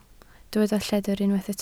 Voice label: "lledr", Welsh

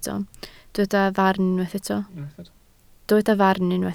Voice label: "farn", Welsh

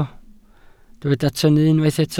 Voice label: "tynnu", Welsh

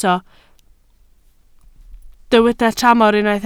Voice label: "tramor", Welsh

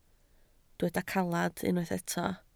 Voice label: "caled", Welsh

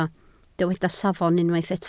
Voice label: "safon", Welsh

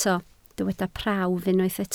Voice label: "prawf", welsh

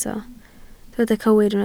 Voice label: "cywir", Welsh

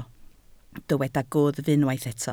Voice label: "gwddf", Welsh

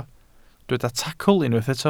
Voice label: "tacl", Welsh

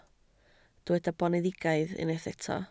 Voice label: "boneddigaidd", Welsh

